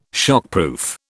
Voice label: "shockproof", British

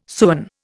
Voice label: "sewen", Indian

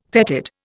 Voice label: "fetid", Indian

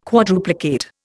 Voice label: "quadruplicate", Indian

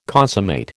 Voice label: "consummate", American

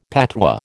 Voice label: "patois", American

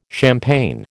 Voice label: "champaign", American

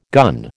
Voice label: "gun", American

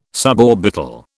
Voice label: "suborbital", British

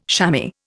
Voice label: "shammy", Indian

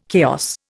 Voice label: "chaos", Indian

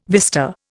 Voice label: "vista", Indian

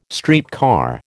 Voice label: "streetcar", American

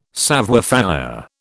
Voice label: "savoir faire", British

